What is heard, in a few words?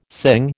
"sing", American